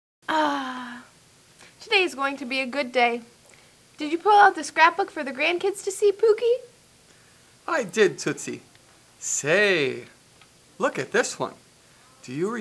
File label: Grandma Grandpa Dialogue